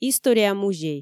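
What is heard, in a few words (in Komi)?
"история музей", Komi, female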